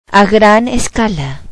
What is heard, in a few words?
large scale, Spanish